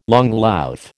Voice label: "langlauf", American

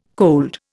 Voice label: "cold", Indian

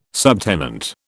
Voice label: "subtenant", British

